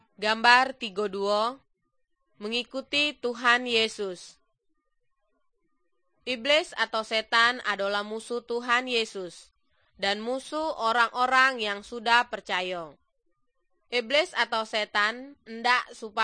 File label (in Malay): good news malay jambi